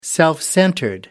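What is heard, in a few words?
"self-centered", British English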